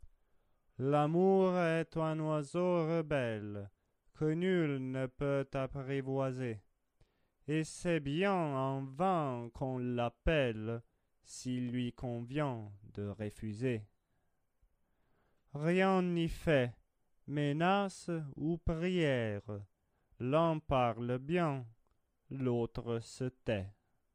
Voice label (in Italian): espressivo; Bizet; Carmen